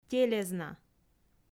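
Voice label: "телезна", Komi, female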